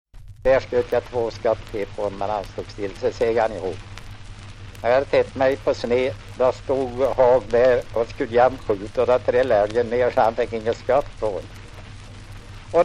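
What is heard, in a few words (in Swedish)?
Sunnemo Värmland, svenska sagor dialekter; Folkminnen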